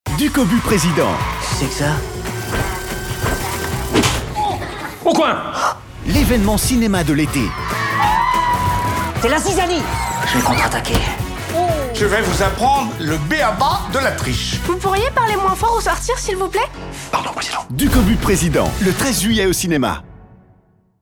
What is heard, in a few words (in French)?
Spot TV Punchy souriant Voix; DUCOBU PRESIDENT